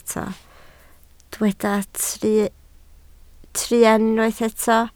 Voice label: "truan", Welsh